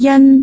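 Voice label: "jan1", Cantonese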